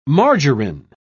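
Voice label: "margarin", English, British English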